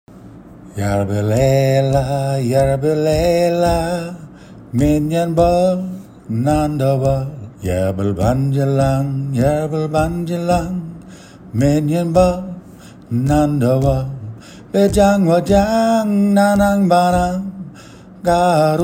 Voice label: speaking verse no backing